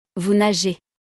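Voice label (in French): "vous nagez", French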